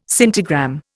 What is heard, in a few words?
"scintigram", Indian